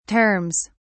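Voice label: "terms", English, British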